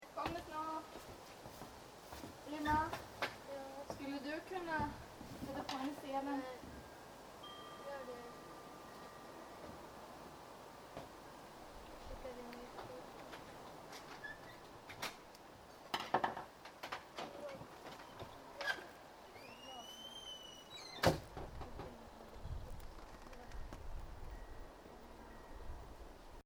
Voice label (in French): voix feminines et enfants; podcasts